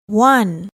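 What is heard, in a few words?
"one", English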